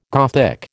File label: "Gothic", American